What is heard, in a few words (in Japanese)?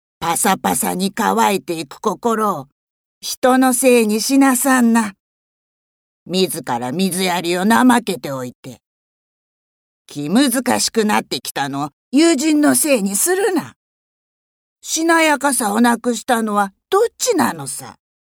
老人